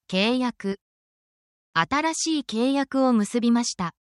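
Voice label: Japanese word, japanese phrases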